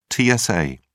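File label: "tsa", British English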